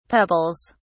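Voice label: "pebbles", British English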